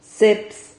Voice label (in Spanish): "ceps", Silabas